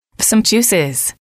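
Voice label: "some juices", English, female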